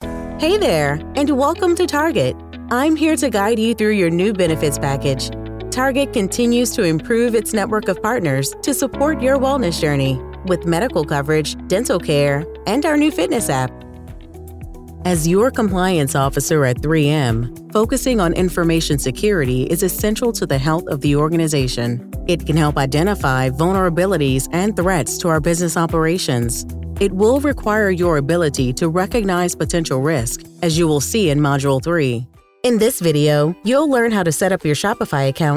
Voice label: Voices eLearning, voiceover